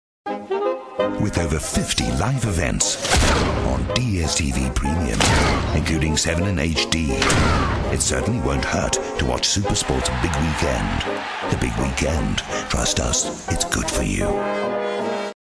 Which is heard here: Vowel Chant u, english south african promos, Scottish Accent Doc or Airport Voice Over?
english south african promos